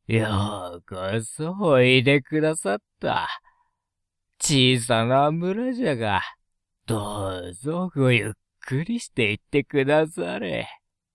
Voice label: male